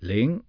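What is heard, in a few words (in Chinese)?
"ling", Chinese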